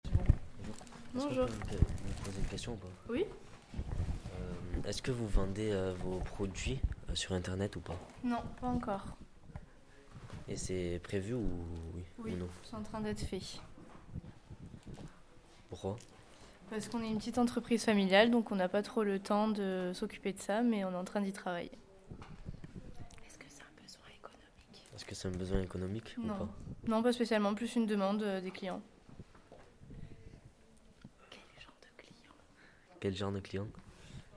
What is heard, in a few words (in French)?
MICROTROTTOIR BOUTIQUE SAISONNIERE CROQUANTS